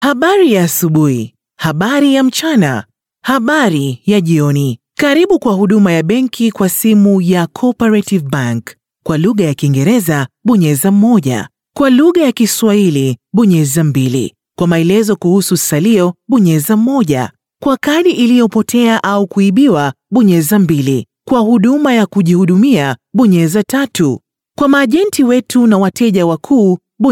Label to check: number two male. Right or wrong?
Wrong